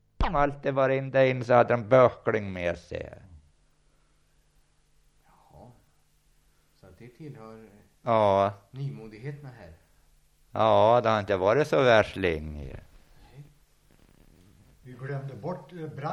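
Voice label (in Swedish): Gräsö Uppland, dialekter; Svenska sagor; Folkminnen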